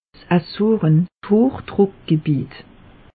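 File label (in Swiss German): "azoren", Alsatian; meteo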